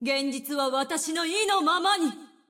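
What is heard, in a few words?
Japanese; 秩序之光（守望先锋）; 终极技能; 現実は私の意のままに！; 现实由我的意志决定！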